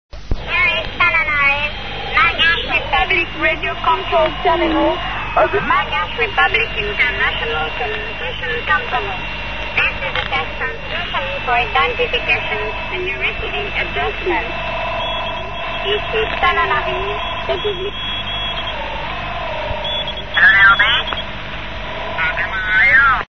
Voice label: usb